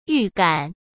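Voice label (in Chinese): "预感", Mandarin Chinese; hsk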